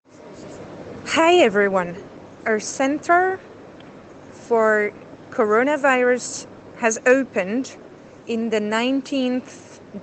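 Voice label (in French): Anglais covid, informations multilingues; Message en Anglais; Les messages de prévention multilingues; Paris19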